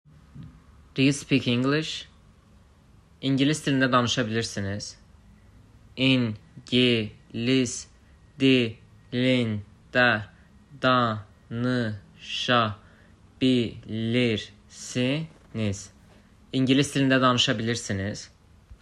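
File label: Do you speak English? İngilis dilində danışa bilirsiniz?, azerbaijani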